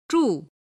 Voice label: "zhu4", chinese

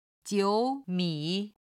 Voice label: "jiu3 mi3", Chinese

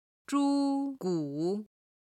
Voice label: "zhu1 gu3", Chinese

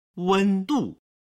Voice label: "wen", Chinese

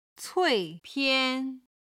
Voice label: "cui4pian1", chinese

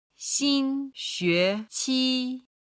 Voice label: "xinxueqi", Chinese

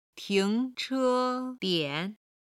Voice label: "ting2che1dian3", chinese

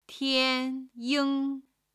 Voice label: "tian1 ying1", Chinese

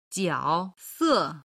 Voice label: "jiaose", Chinese

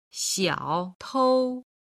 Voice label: "xiaotou", Chinese

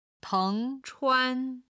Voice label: "teng chuan", chinese

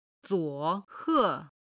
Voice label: "zuo3 he4", Chinese; chinese travel

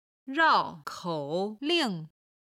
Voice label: "rao4 kou3 ling4", chinese

